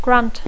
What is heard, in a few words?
"grant", English